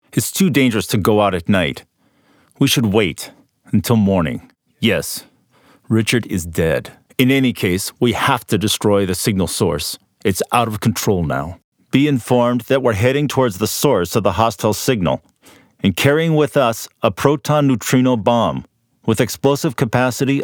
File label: movie US